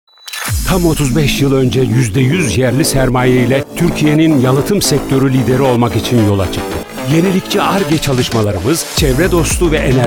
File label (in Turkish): reklam enerjik dinamik; ode